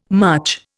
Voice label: "much", Indian